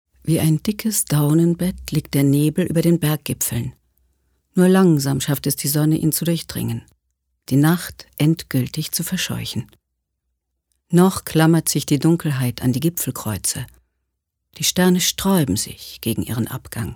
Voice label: Schauspiel Variabel

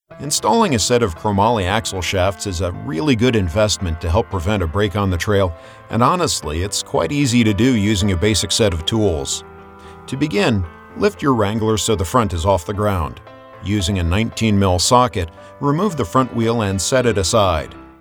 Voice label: Kommentar Dynamisch

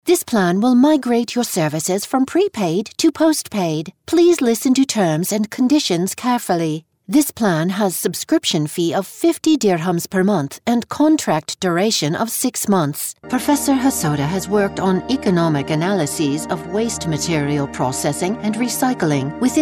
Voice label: Eng UK Variabel; Fremdtake

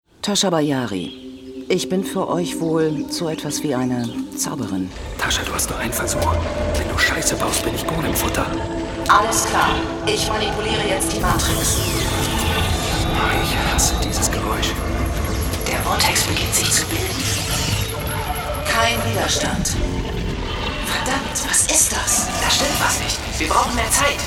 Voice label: Schauspiel Dynamisch

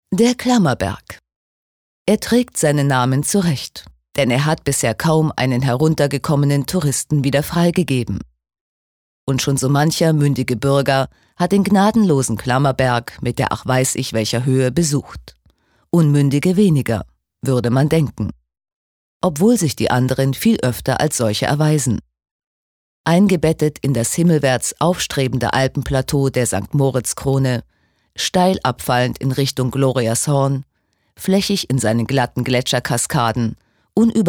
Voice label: Kommentar, Neutral

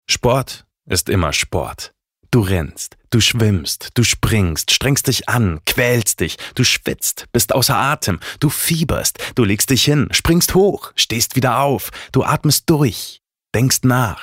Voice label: Dynamisch

